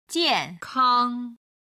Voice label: "jian4kang1", chinese